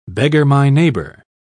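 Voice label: "beggar-my-neighbour", British English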